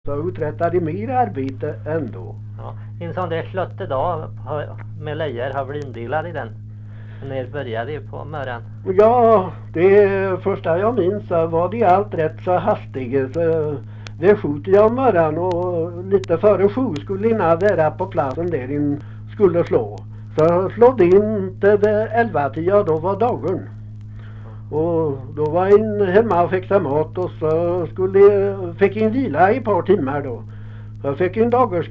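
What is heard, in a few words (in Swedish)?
Lerdal Dalsland, dialekter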